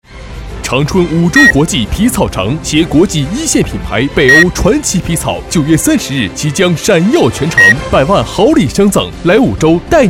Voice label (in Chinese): 男 广告 皮草城; 五洲国际